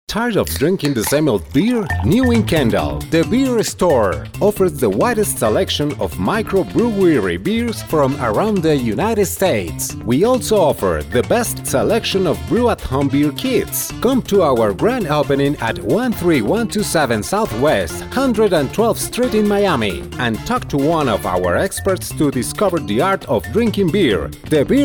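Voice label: Spanish English commercial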